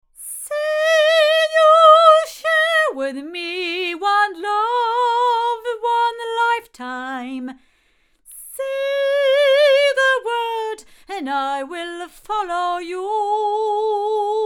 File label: female; microphones; violet designs